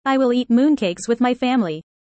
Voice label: fast; four seasons